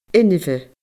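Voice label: "inbhe", Scottish Gaelic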